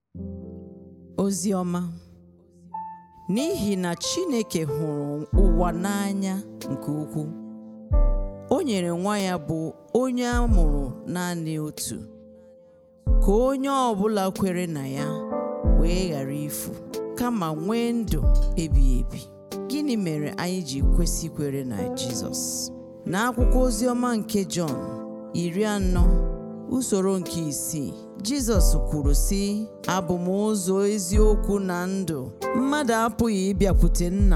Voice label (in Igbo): Ibo version of The Gospel; Igbo